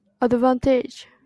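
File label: "advantage", Japanese, female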